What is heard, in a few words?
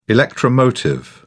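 "electromotive", British English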